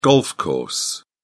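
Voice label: "golf course", British English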